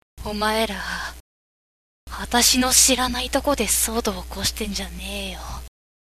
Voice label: girl